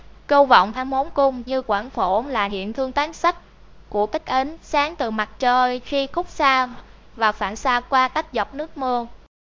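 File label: speaker, American English; piper-voices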